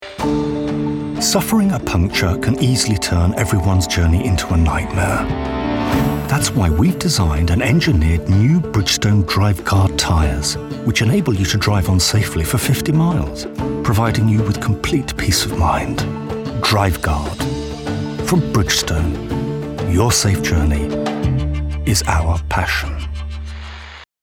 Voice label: male english commercial; Bridgestone

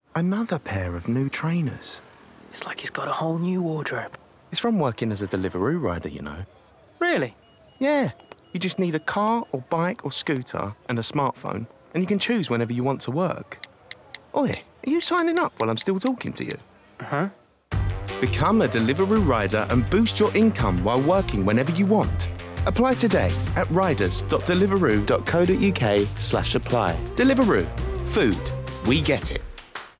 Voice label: male slight midlands accent commercial; english and italian; deliveroo

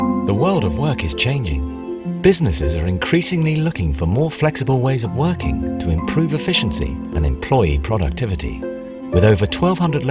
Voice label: male english corporate compilation

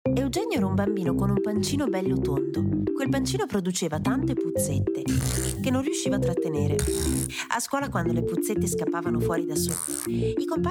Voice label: female italian audio narration extract; eugenio e le puzzette

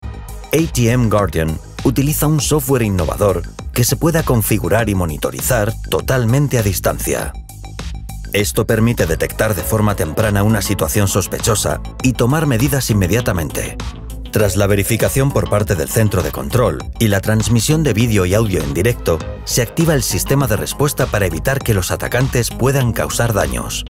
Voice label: male spanish castilian commercial; ATM Guardian

